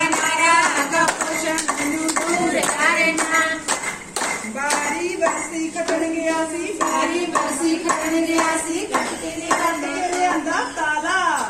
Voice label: Punjabi

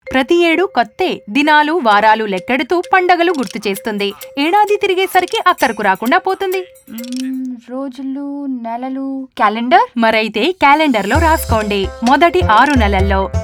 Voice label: Exclusive Breastfeeding Telugu, Audio Spot; poshan

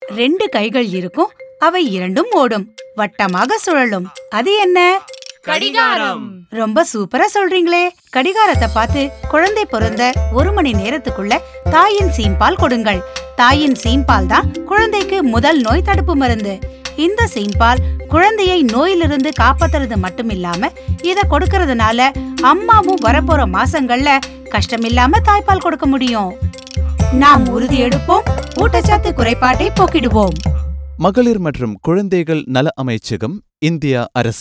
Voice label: Early Breastfeeding Tamil, Audio Spot; poshan

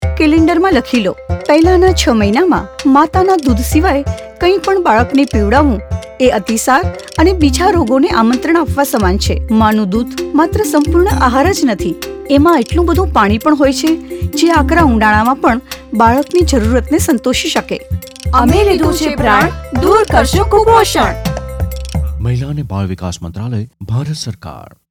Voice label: Exclusive Breastfeeding Gujarati, Audio Spot; poshan

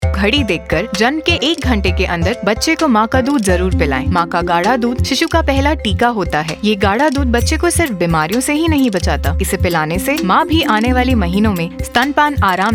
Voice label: Early Breastfeeding Hindi, Audio Spot; poshan

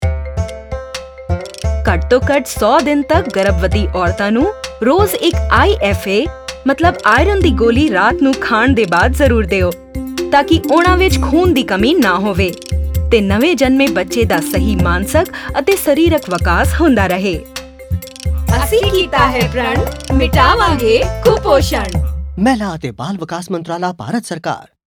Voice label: Maternal IFA Punjabi, Audio Spot; poshan

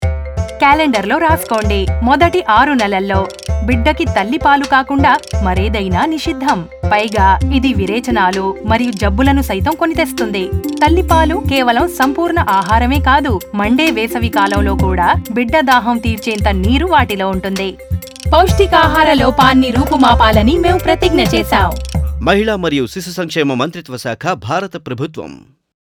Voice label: Exclusive Breastfeeding Telugu, Audio Spot; poshan

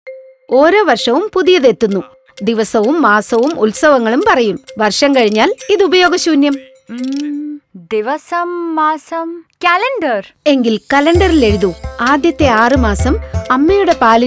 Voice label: Exclusive Breastfeeding Malayalam, Audio Spot; poshan

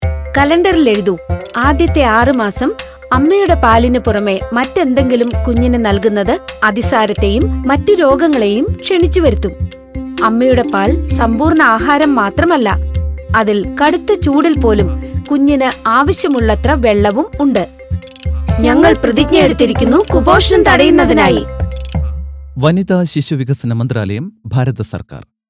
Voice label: Exclusive Breastfeeding Malayalam, Audio Spot; poshan

